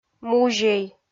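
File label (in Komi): "музей", Komi, female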